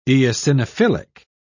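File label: "eosinophilic", English, British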